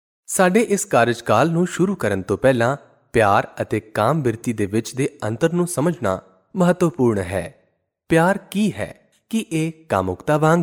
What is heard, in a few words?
male punjabi voice over narrative